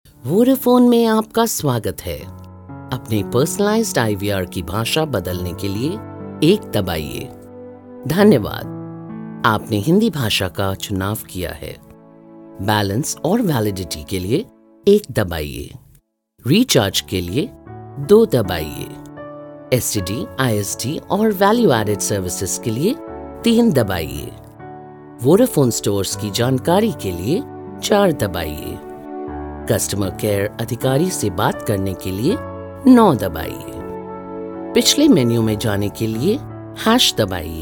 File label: IVR, Hindi